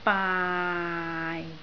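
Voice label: "baai4", Cantonese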